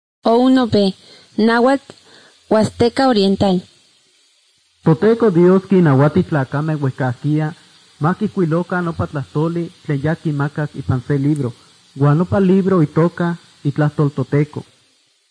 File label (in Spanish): spanish mexico